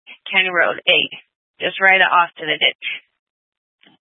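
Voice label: County Fire, Scanner audio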